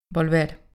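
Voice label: "volver" Spanish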